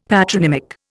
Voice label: "patronymic", Indian; Dictionary

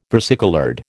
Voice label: "versicoloured", American

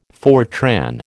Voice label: "Fortran", American

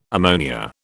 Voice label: "ammonia", British

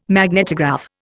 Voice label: "magnetograph", Indian

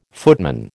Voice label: "footman", American

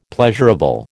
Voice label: "pleasurable", American

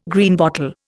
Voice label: "Greenbottle", Indian

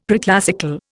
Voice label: "preclassical", Indian; Dictionary

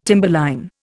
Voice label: "timberline", Indian

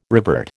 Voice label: "revert", American

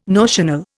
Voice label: "notional", Indian

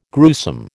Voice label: "gruesome", American

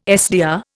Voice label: "SDR", Indian

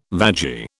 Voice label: "vagi", British

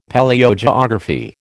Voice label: "Palaeogeography", American

